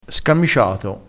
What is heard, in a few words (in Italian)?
"scamiciato", crespinese